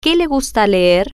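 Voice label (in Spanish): Que Le Gusta Leer, spanish, female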